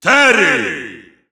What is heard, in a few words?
Russian Announcer; Terry; SSBU